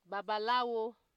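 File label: "babalawo", Nigerian Pidgin, female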